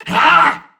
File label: Threatened Crewmen; Dialog; Barks; Battle; Warframe; CorpusCrewmen; CrewmanD